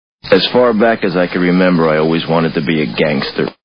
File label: gangster; halflife